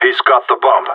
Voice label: bomber spotted, radio; GSG9